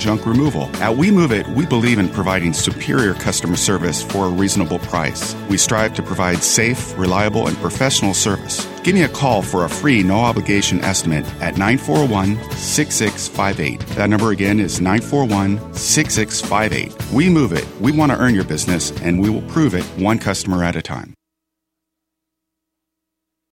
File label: radio commercial; WE MOVE IT